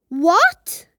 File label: "What" boy child kid